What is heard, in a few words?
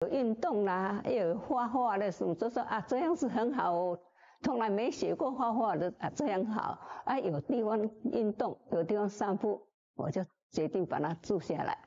elderly